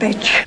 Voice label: "bitch", expletives